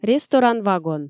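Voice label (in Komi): "ресторан-вагон", Komi, female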